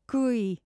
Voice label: "gaoth", Connachtach; Tearmai Aimsire